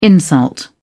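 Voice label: "insult", British English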